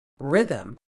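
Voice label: "rhythm", medical English, British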